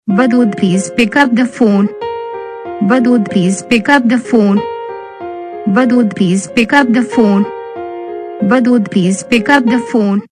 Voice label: pick up phone saying, Ringtones